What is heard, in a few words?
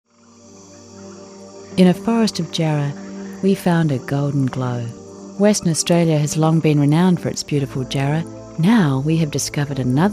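australian commercial, Commercial Voice Talent; AUSTRALIAN » Deep and measured Australian accent.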